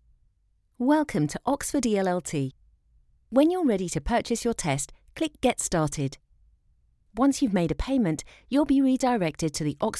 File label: english british e-learning